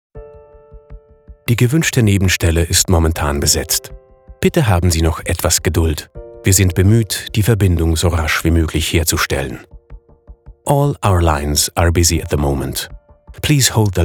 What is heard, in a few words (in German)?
Anrufbeantworter deutsch englisch; GER ENG